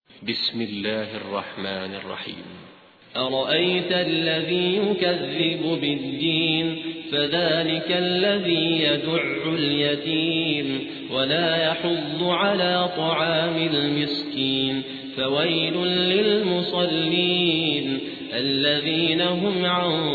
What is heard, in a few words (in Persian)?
ترتیل قاری سودانی; سوره ماعون